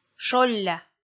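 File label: "шолля", Komi, female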